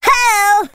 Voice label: "ho" cartoon; ooh ahh; ho hoo woo